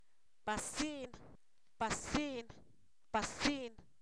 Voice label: aviso, Zapoteco, san miguel albarradas; sustantivos

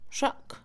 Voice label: saco, Mixe, Mujer; Acciones; INALI

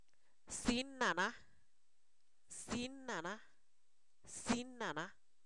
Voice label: oreado, Zapoteco, san miguel albarradas; adjetivos

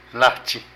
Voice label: Hule, Zapoteco de Petapa; Moderno; Vocabulary

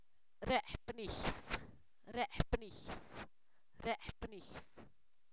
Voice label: relámpago, Zapoteco, san miguel albarradas; fenomenos naturales

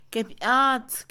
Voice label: bejuco, Mixe, Mujer; INALI

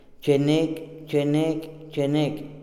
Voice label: frijol, Tojolabal

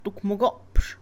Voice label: cien, Mixe, Mujer; Números; INALI

